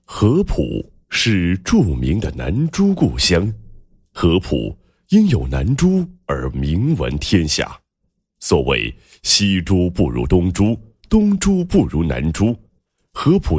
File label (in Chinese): 男, 品牌宣传; “南珠故乡合浦”宣传配音